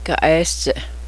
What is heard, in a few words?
jacket, cheyenne